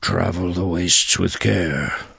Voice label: Tuskarr Male Farewell; Warrior

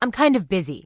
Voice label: Human Female Standard NPC Pissed

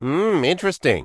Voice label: Gnome Male Standard Greeting; NPC

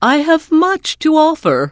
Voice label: NPC Tuskarr Female Vendor; Warrior

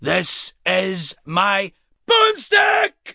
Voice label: Rifleman Pissed

